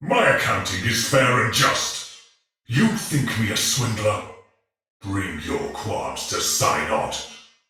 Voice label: Patrol spoken, male soldier; Dialog; Barks; Battle; Sinecure